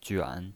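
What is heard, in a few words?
"juan", third tone, pinyin voice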